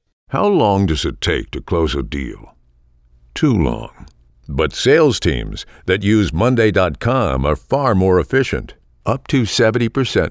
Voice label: dry commercials

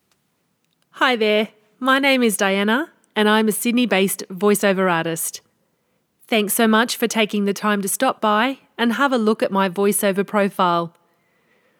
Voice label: Studio sound dry read

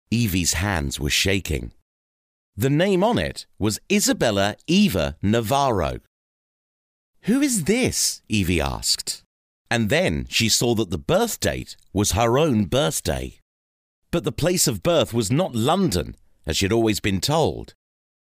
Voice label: audiobook

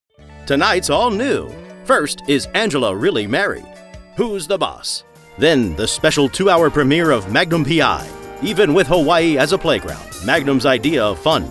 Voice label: Throwback Promos

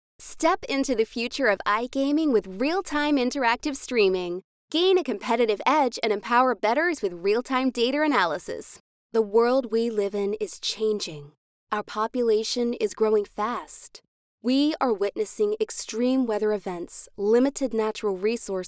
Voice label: American Commercial

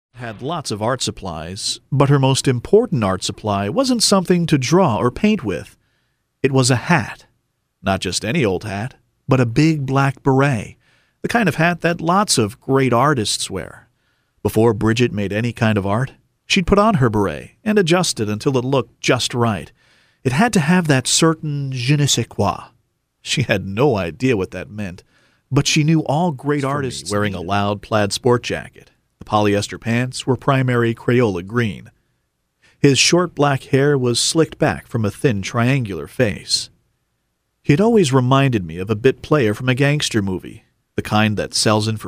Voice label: Audiobooks

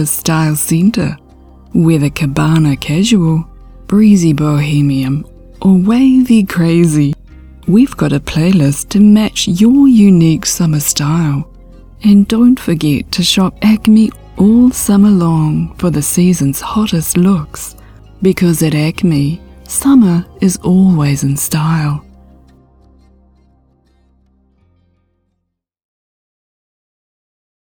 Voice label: spot music

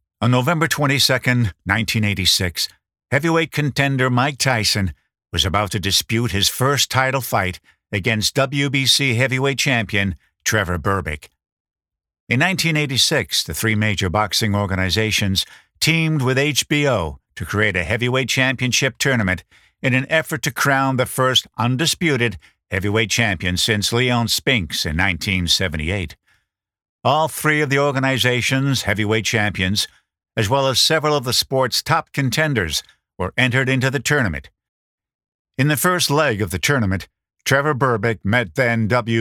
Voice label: Sports Documentary

